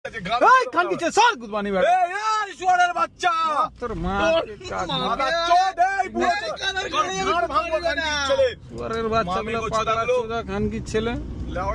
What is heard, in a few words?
bangali slang